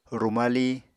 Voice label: "rumali", Apatani

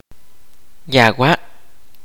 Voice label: "be ill", kaqchikel

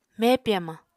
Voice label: "mepi hamañ", Apatani

